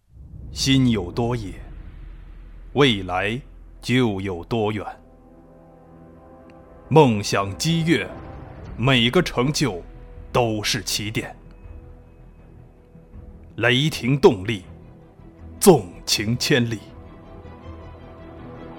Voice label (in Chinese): 样音非干音广告